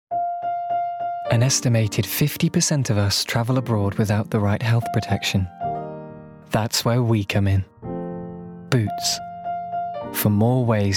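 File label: commercial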